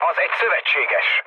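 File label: Hungarian player friendly fire mood low, speech; War Thunder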